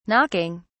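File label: "knocking", English, British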